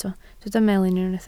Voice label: "melyn", Welsh

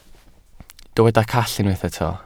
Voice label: "call", Welsh

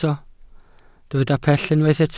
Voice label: "pell", Welsh

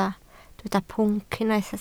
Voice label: "pwnc", Welsh

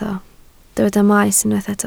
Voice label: "maes" Welsh

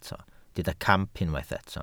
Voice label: "camp", Welsh

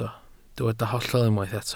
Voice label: "hollol" Welsh

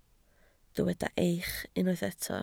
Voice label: "eich", Welsh

